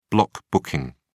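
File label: "block booking", British English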